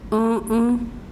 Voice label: sal, mazahua

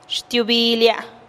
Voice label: "xtiubilia" mi codo, teotitlan

